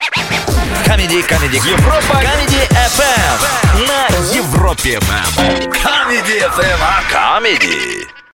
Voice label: Rolik EUR-COMEDY